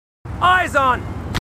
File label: marines, aussie; h2dialogue